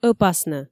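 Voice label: "ӧпаснӧ", Komi, female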